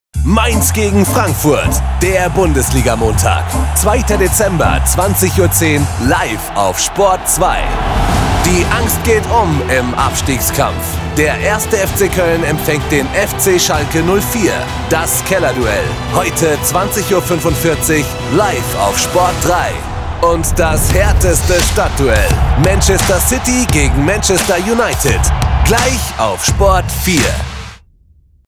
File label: Promo football